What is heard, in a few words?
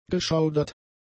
"geschaudert", German; adjectives